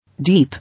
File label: "Deep", English